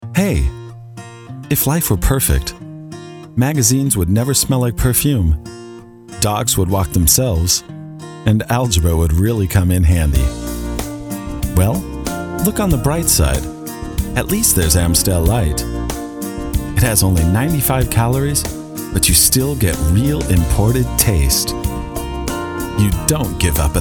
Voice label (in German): us englisch; berlin; LOFT VOICES